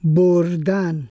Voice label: "burdan", Persian

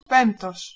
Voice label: "pemptos", Greek

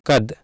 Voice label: "kad", Sanskrit

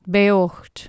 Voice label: "beorht" Anglo Saxon

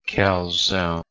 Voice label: "kalzo", Proto Germanic

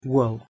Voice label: "wull", Anglo Saxon

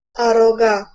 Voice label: "aroga", Doric Greek

